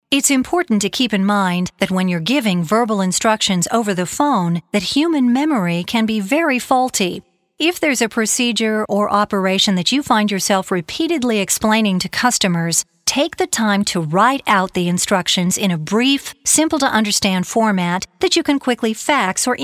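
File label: American elearning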